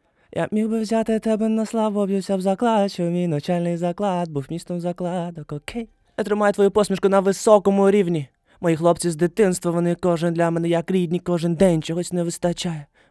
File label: vokal na mikrofon lewitt 840; Arturia AudioFuse Studio; Lewitt LCT 840 - Vocal